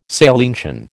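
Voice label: "salientian", American English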